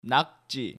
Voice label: "Nakji", Korean